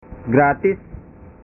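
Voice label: "gratis", Indonesian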